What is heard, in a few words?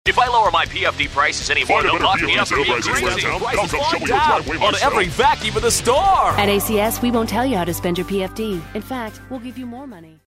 TV Intro; BIG Alaska Communication